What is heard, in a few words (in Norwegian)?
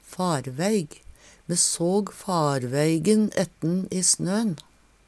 farveig, Numedalsmål; Me såg farveigen ette`n i snø`n.; Substantiv hankjønn